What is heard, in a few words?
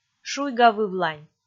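"шуйгавывлань", Komi, female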